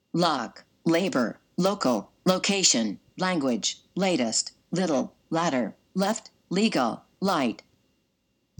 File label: l start words us